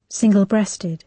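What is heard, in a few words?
"single-breasted", British English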